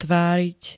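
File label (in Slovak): "tváriť", Slovak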